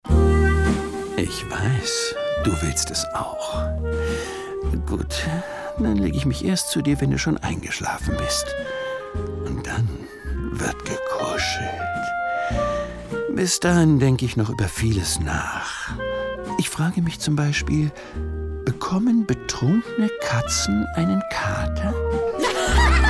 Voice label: Social Spot Ehrenmiau, Commercial (Werbung)

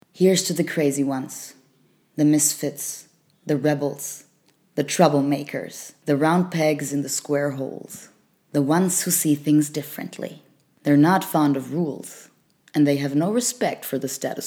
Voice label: Werbung; Apple

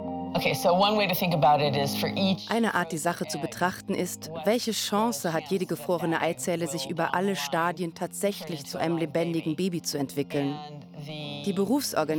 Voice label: Doku Voiceover; Eizellen; LOFT VOICES

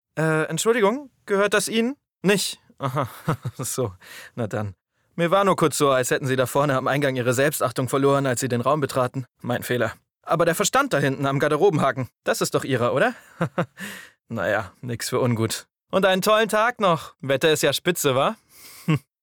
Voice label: arrogant; LOFT VOICES

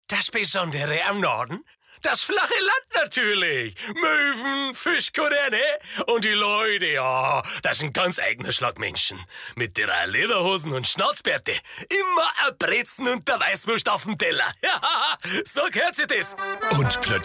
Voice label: Dialekt Norddeutsch

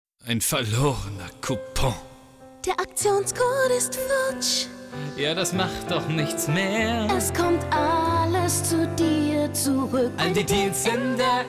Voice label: Werbung OFF und JINGLE

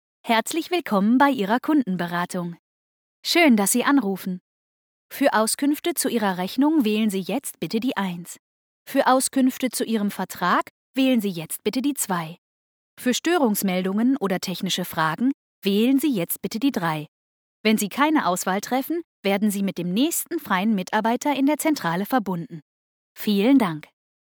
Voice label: telefonansage deutsch hell

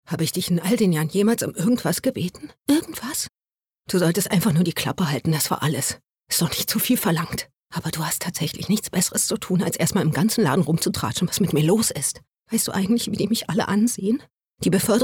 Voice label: enttaeuscht

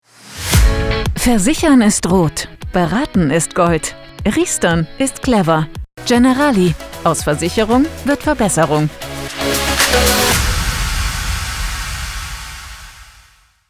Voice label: Spot; Generali

